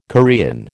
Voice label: "Korean", American

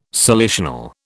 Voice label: "salicional", British

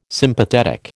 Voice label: "Sympathetic", American

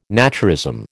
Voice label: "naturism", American

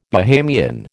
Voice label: "Bahamian", American

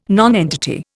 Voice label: "nonentity", Indian

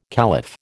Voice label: "caliph", American

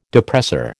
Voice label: "depressor", American

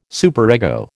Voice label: "superego", American

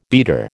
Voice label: "beater", American

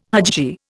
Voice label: "hajji", Indian

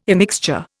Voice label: "immixture", Indian

